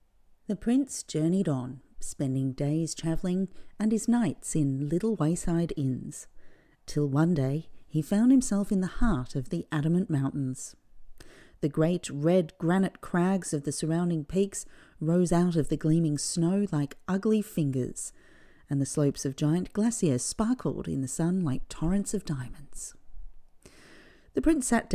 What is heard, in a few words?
Fairytale narration